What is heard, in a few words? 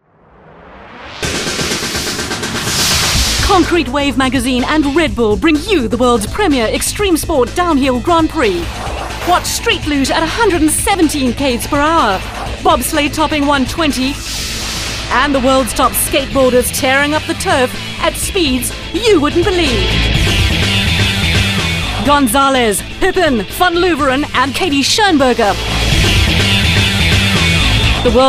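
english South African female commercial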